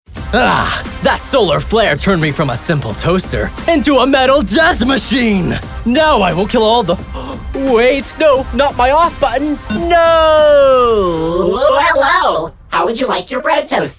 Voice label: character demo